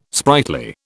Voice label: "sprightly", British

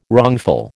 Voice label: "wrongful", American

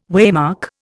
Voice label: "waymark", Indian

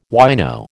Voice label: "wino", American

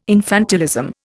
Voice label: "infantilism", Indian

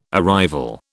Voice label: "arrival", British

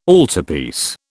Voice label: "altarpiece", British

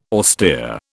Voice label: "austere", British

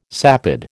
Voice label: "sapid", American

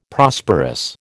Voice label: "Prosperous", American

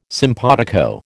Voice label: "simpatico", American

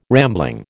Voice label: "rambling", American

